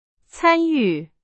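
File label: Chinese; hsk4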